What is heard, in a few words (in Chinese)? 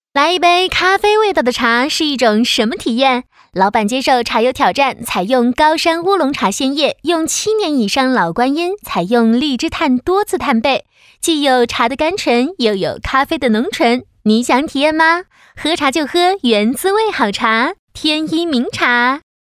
广告茶